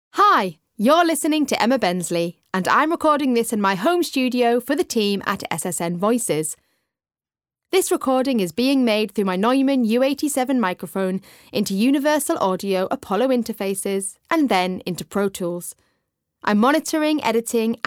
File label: Home Studio Test